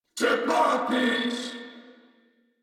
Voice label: Reload Corpus Venus Male; Warframe; Battle Barks

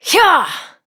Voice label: Horse Sprint Adult; Duviri

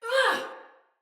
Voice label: Attack Sinecure Soldier Female

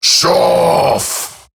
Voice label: Ability Short Cast, Grineer Male, en; Dialog; Barks; Battle; Eximus

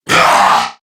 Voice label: Threatened Crewmen, Battle; Dialog; Barks; Warframe; CorpusCrewmen; CrewmanA

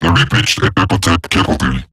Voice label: Patrol Robot Large, Barks, Battle; Warframe; Eximus Corpus Robotic Large

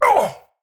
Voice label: Pain; Warframe; Tau; Dax; Knight

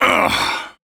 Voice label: Pain, Male, en; Railjack Crew; Arbiters Hexis

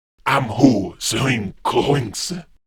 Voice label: Patrol, Male

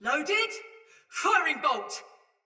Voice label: Shoot Arrow Female; Barks Battle; Sinecure Melee Mace Agent

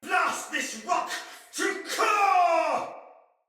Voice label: Attack Heavy Soldier Male; Barks Battle; Sinecure Soldier Male A

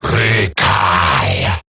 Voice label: Ability Short Cast Eximus Grineer Male

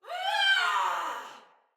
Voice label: Battle Cry Sinecure Soldier Female; Barks; Dialog

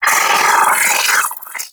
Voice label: Vocal Attack; Warframe; Sentients; Battalyst

